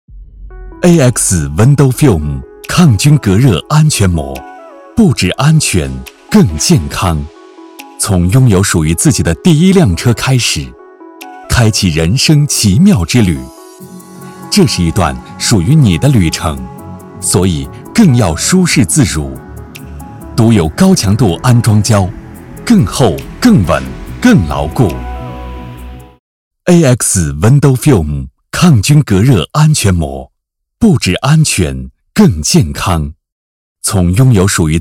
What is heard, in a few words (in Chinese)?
男, 产品宣传, 轻松, 科技感